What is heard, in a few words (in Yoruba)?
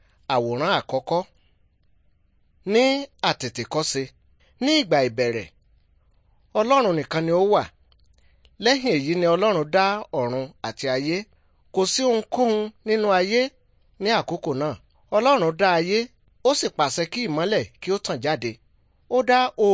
good news yoruba